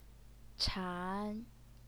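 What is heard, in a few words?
"chan", Mandarin Chinese, second tone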